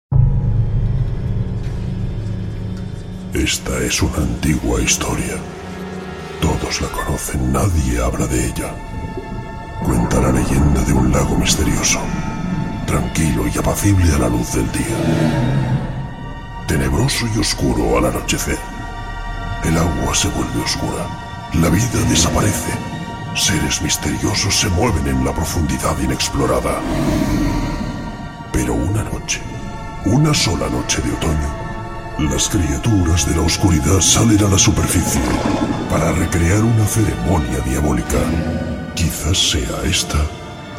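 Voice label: European Spanish, characters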